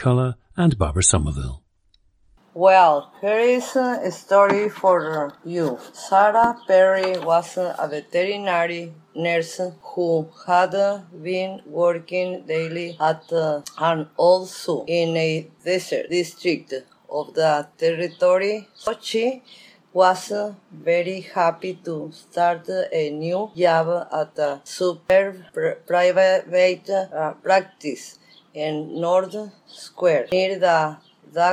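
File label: zacatecas, dialects of English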